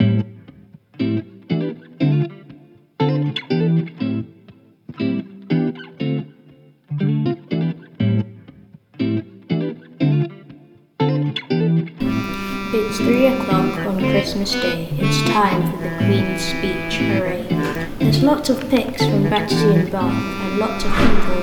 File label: Poem